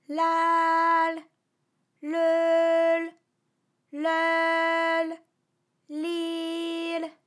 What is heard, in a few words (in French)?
la le leu li l, vocal library, FRA